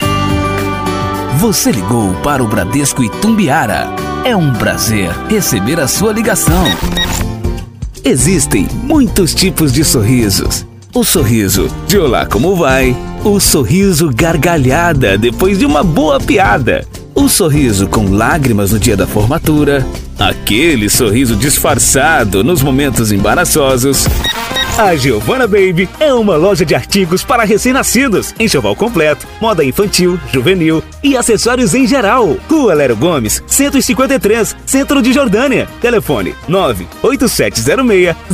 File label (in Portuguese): demo locucao brasil